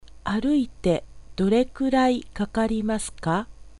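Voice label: Japanese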